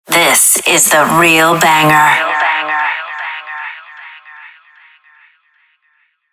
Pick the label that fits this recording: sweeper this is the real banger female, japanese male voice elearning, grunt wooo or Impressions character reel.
sweeper this is the real banger female